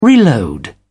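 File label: "reload", British English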